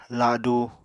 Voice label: "ladu", Apatani

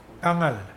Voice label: to dry, Mokilese

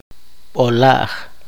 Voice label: poqomchi; hortaliza

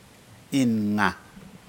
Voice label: birds Porphyrio porphyrio, Aneityum

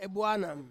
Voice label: "buanam", Ansus; blow

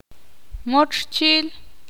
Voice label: ixil; cavar

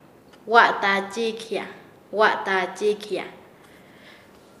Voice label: Nasa Yuwe; ensillar; paez

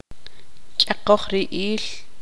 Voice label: tzutujil; saltar

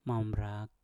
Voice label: "mambrak", Mpur; penyu laut

